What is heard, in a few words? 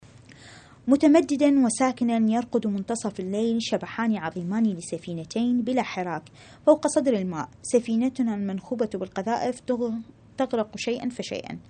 arabic